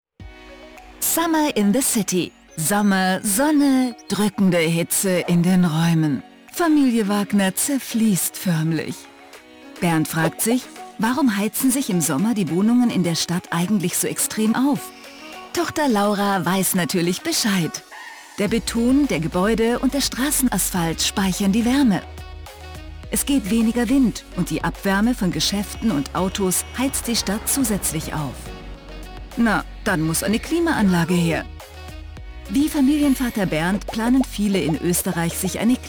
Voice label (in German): Erklarfilm; E-Control Klimatisierung